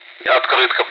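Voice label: Speech Sound Russian Common air traffic control Callsign; ATC; Otkrytka; DCS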